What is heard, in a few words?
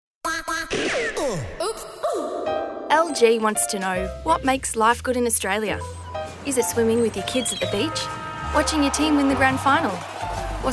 Voice Over